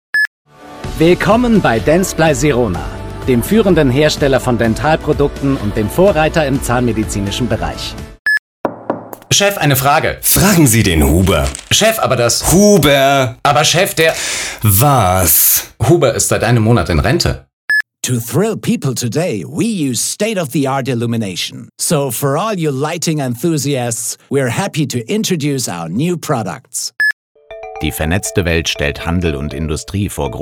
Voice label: werblich

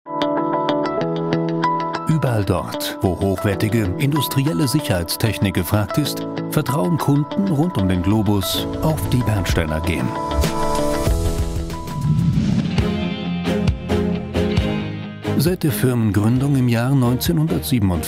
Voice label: imagefilm technik

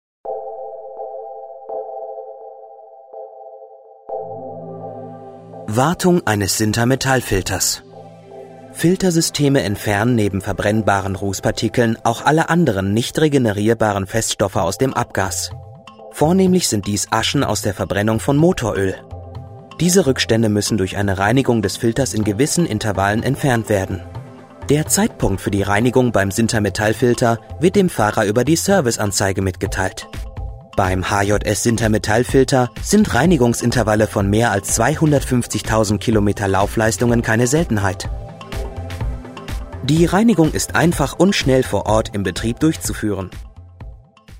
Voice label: imagefilm; hjs

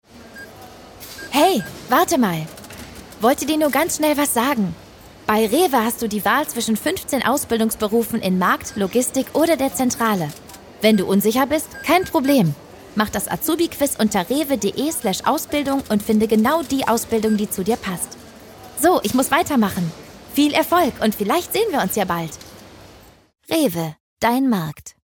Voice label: werbung; rewe dein markt azubi

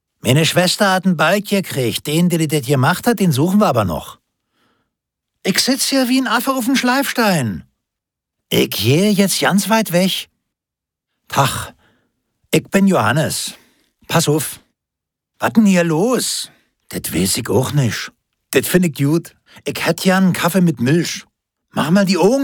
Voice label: berliner dialekt

